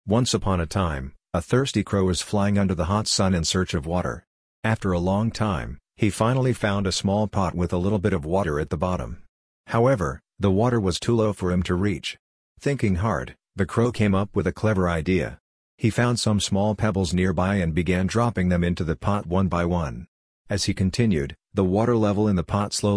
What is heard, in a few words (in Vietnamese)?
truyen tieng anh cho be the thirsty crow